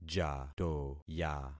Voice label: "jatoya", concat syllables; Affixing experiment